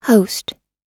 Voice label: "host", oxford